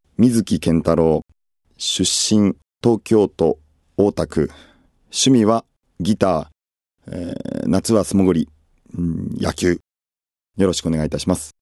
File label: freetalk, male voice